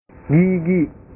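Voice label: "gigi", Indonesian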